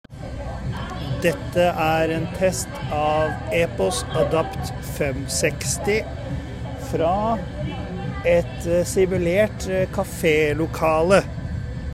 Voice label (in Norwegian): samtalelyd café; EPOS Adapt 560